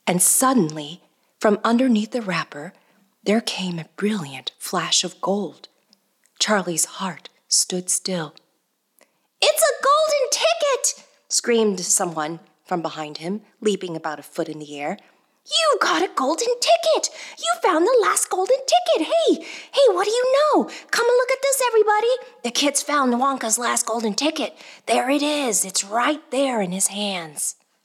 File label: Character Voices